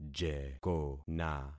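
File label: "jekona", concatenated syllables; Affixing experiment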